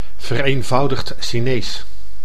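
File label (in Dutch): "vereenvoudigd Chinees", Dutch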